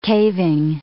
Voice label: "caving", English